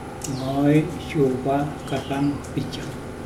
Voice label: I did not see the pig, Mokilese